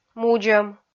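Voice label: "мудзӧм" Komi, female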